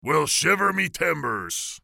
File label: Pirate